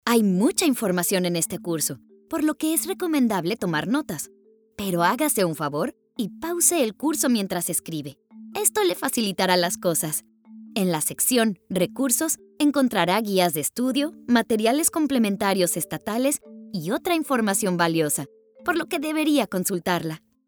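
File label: Spanish Voice Over, Training; eLearning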